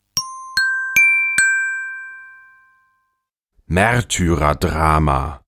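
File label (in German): "Maertyrerdrama", German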